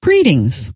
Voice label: "greetings", scientist female